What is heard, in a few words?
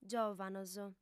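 "giòvanos", Sardinian, female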